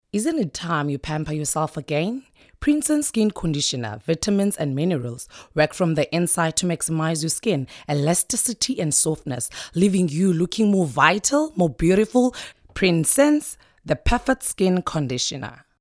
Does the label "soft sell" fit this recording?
Yes